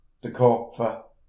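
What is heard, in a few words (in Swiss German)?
"karpfe", Alsatian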